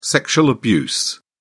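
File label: "sexual abuse", British English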